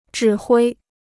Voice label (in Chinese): "指挥", Chinese